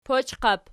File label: "pochqiap", Archi